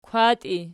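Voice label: "kwatii", nominative, Archi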